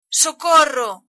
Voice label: help, galician